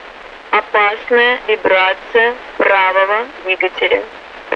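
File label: Russian speech, Mi-8MT RI65; Messages right engine vibration; DCS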